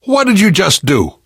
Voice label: team kill accident, left4dead2 survivor voice namvet; source engine